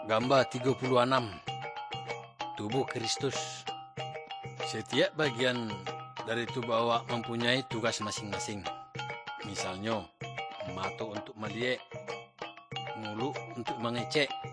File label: good news minangkabau muko muko; kaba ilo